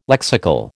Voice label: "lexical", American